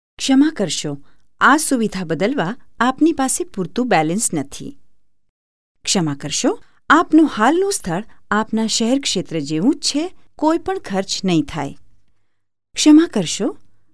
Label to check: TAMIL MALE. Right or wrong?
Wrong